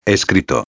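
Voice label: "escrito", Spanish; preterito perfecto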